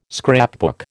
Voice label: "scrapbook", American